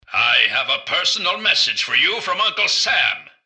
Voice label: generic robot murder; mrgutsy; npc